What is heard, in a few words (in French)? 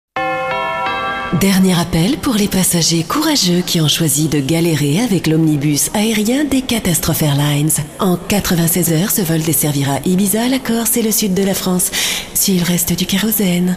VOIX HOTESSE; CATASTROPHES AIRLINES